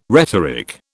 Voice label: "rhetoric", British

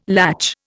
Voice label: "latch", Indian

